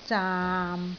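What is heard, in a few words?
"zaam6", Cantonese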